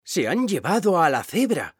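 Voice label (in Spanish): "se han llevado", Spanish; mekanta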